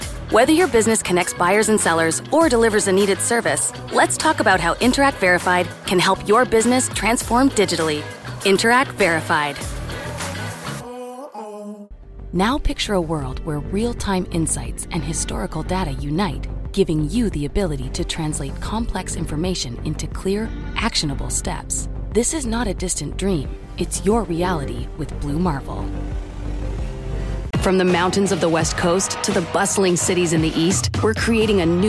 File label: english canadian corporate videos